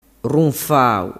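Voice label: "runfau", Sicilian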